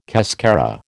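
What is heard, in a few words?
"cascara", American English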